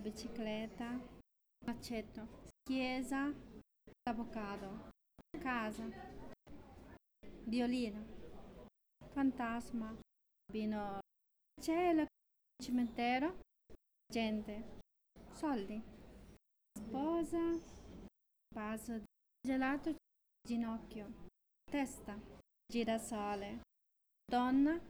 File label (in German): Zusammengefugte Worter Schnell Italienisch